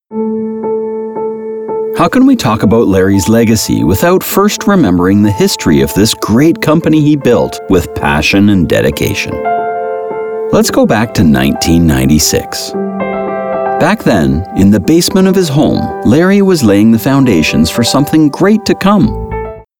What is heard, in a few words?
Conversational Business Read